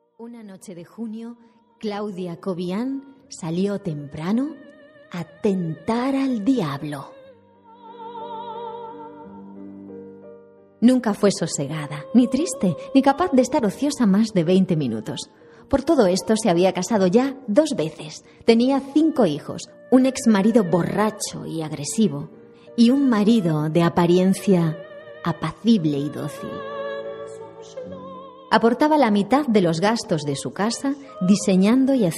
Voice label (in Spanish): Cuenta Cuentos